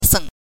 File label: "seng", pinyin